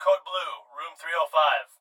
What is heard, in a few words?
Hospital Pa Voice; Medical; Blastwave